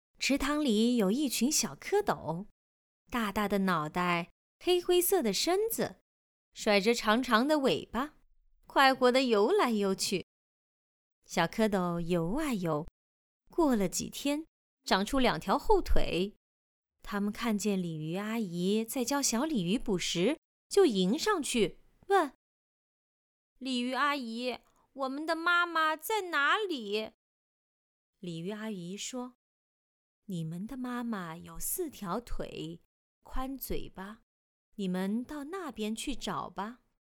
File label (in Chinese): 少儿读物试音